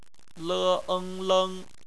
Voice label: "leng", Chinese; bpmf